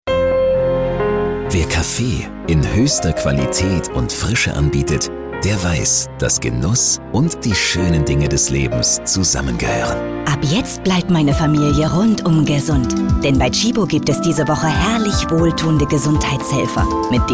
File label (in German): spot; tschibo